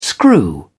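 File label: "screw", British English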